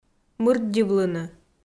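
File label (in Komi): "мырддьывлыны", Komi, female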